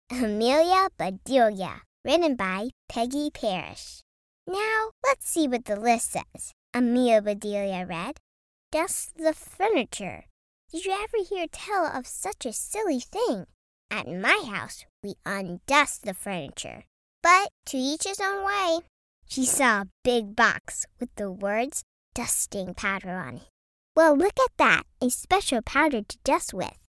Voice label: VO Audiobook